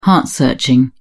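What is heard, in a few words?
"heart searching", British English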